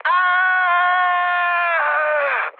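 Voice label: Hungarian damaged pilot mood high, speech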